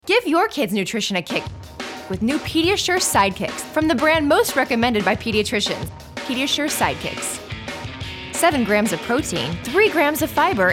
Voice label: Commercial